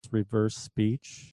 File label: reverse speech